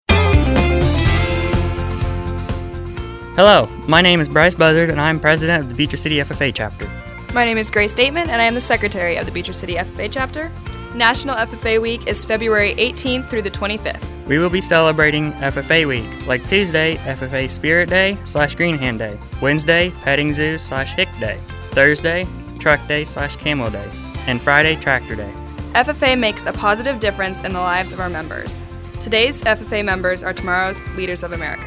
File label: male female; Beecher City FFA